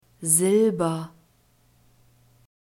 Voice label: "silber", German; neuter

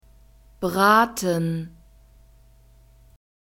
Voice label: "braten", German; verbs present tense irregular

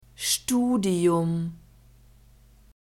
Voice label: "studium", German

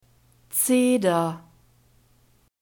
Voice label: "zeder", German; nouns gender feminine

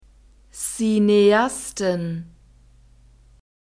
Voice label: "Cineasten", German

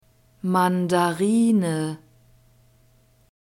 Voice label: "mandarine", German; fruits

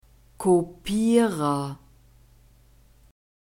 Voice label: "kopierer", German

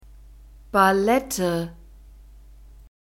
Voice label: "Ballette", German

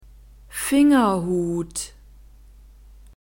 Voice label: "Fingerhut", German; nouns gender feminine

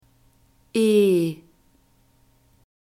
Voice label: "e", German

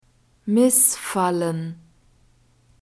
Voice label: "Missfallen", German

